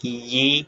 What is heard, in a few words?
short voyels arabic alphabet